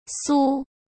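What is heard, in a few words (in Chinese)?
"酥", Mandarin Chinese; hsk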